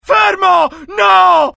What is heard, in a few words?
fear, IT; dialogue; mohaa